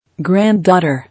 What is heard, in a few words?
"Grand daughter", English